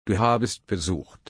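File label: "besuchen", German, slow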